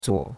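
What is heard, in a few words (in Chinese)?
"zuo3", Chinese; Cours-de-Chinois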